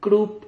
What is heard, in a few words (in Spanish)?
"crup", Silabas